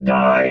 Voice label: "die", robot vox